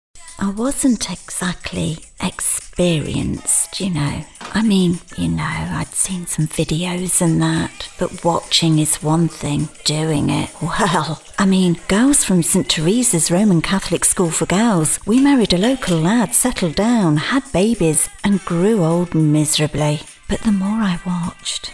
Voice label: Commercial Comedic